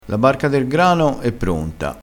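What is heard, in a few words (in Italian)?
"barca", vocabolario dialettale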